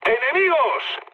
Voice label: enemy detected, mood med, speech; War Thunder